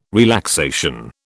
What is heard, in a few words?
"relaxation", British